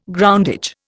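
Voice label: "groundage", Indian English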